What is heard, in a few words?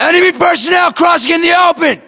threat infantry exposed, US; localized english; battlechatter